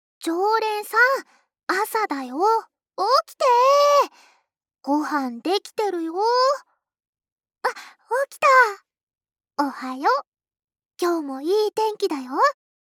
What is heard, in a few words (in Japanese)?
シチュエーションボイス; 10日間限定特典 和み処 一番星～距離感の近い甘えん坊な仲居『月さん』が一生懸命ご奉仕する癒しの 一日～